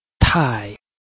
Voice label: "tai4", Chinese